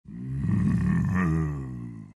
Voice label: tiny anger; dota